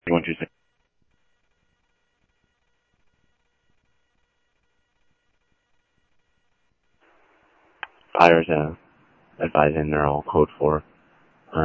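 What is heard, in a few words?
Sheriff East County, scanner audio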